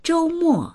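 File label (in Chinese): "zhou mo" Chinese; 周末; 週末、ウィークエンド; dictionary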